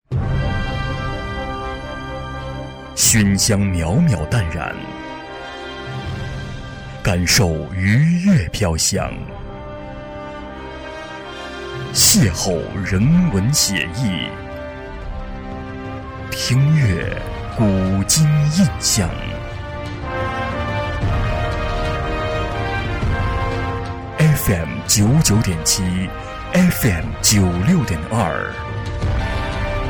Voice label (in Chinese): 广播电台 频率 形象包装